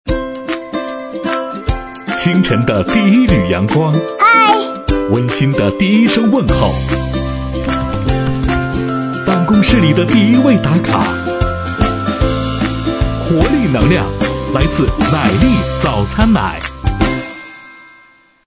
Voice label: 品牌广告; 奶力早餐奶

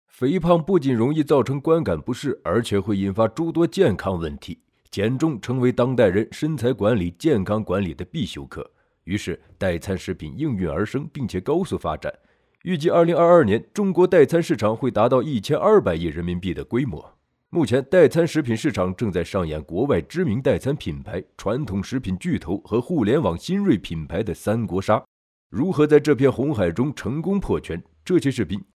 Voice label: 视频解说行业探索

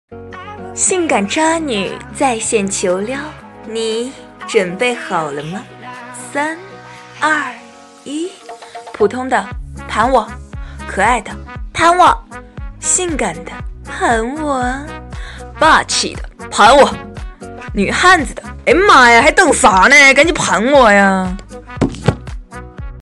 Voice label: 搞笑多种声线转换

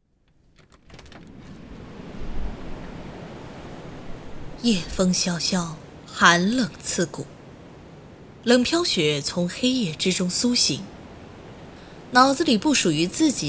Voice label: 网络有声书试音

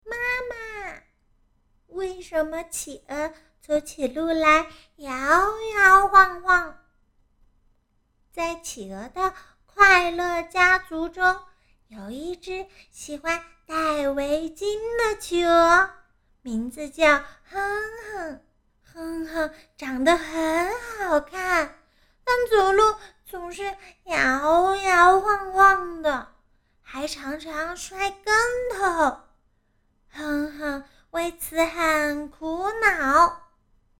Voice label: 配音动画岁女孩

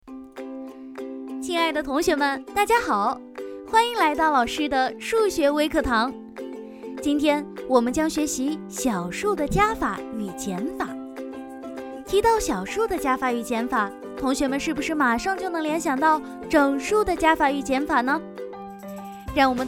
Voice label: 亲切活泼

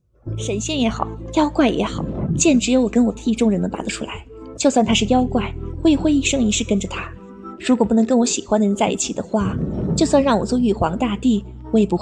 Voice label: 经典台词配音

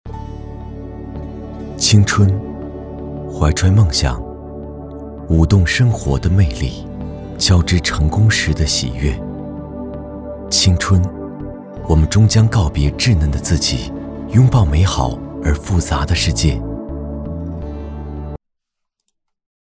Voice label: 微电影 走心

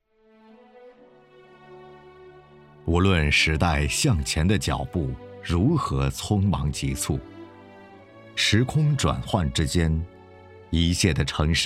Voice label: 专题 厚重 沧桑; 李溪镇脱贫攻坚

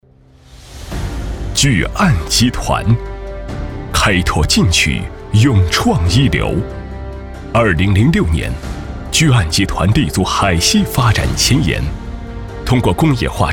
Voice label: 大气宣传片; 巨岸集团宣传片修订脚本

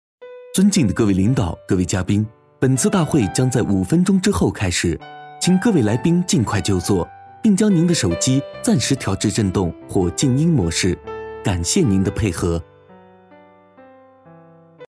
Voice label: 会场提示语音

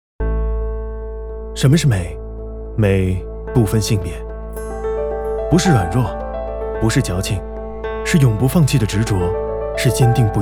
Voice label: 年轻素科技感

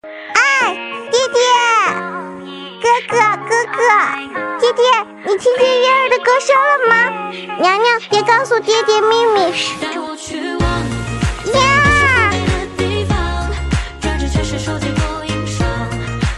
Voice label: 小小萝莉音; 清平乐小徽柔

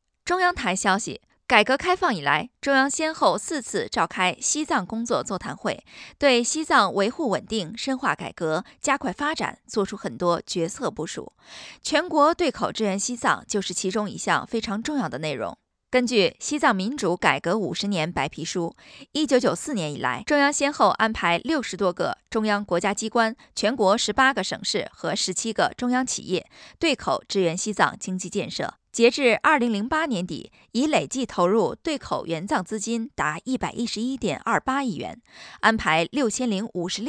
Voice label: 新闻类

